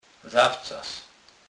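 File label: "zawcas", Kurpie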